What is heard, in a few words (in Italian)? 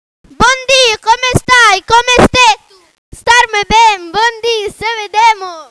veneto